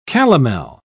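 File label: "calomel", British English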